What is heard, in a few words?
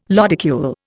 "lodicule", Indian